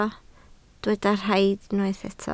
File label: "rhad", Welsh